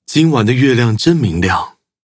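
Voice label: level up, traditional Chinese; Cookie Run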